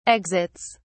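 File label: "exits", British English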